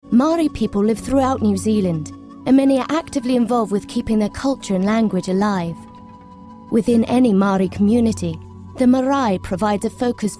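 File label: Australian English, documentary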